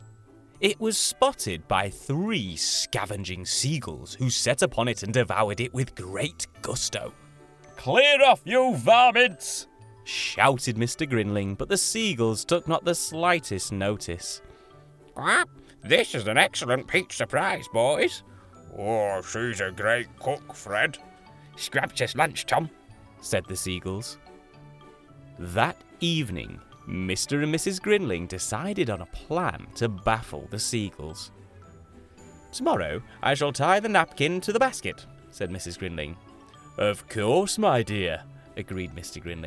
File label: audiobook, 20s-30s. Male. Lancashire/Manchester. Studio.